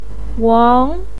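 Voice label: "wang2" Chinese syllable, pinyin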